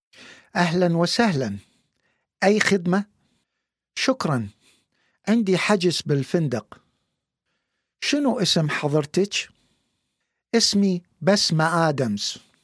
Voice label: iraqi dialect